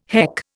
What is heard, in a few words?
"heck", Indian